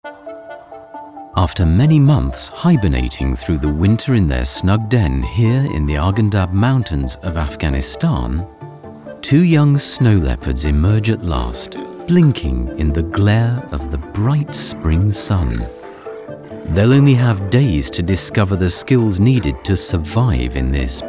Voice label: english british narration, british voice actors; Source Connect Studio